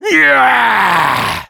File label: battle cry, fr; Sniper